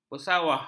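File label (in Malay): "bersawah", Malay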